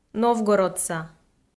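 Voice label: "новгородса", Komi-Zyrian, female; kpv audio dict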